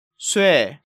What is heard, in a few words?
"Swe", Korean